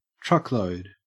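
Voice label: "truckload", Australian English; pronunciations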